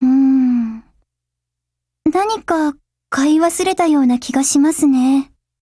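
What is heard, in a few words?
OPERATION OVER Japanese, Voice; GF Alarm; Ak5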